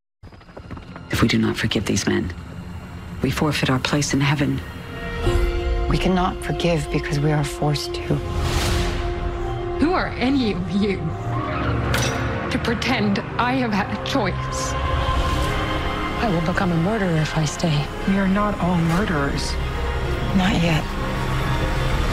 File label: women talking